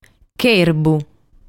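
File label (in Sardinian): "Cherbu", Sardinian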